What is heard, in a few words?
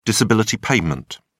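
"disability payment", British English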